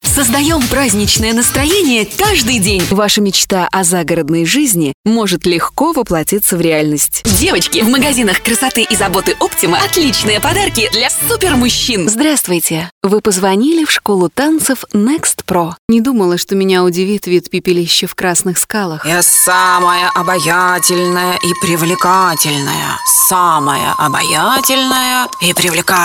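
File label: Russian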